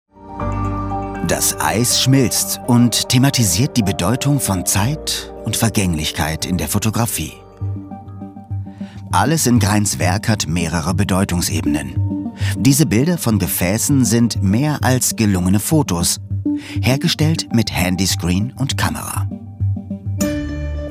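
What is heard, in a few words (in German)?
Doku Kommentar, professioneller Sprecher; Eis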